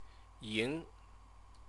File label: "ying", Chinese